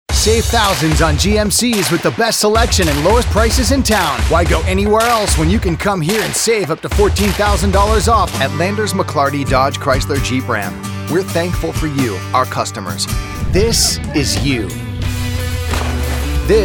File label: car spot